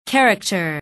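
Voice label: "character", English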